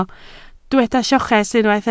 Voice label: "lloches", Welsh

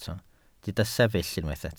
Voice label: "sefyll", welsh

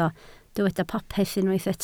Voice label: "popeth", Welsh

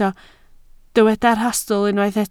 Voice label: "rhastl", Welsh

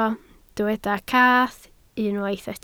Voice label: "cath", Welsh

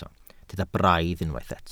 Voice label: "braidd", Welsh

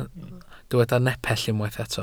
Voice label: "nepell", welsh

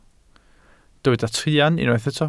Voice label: "truan", Welsh

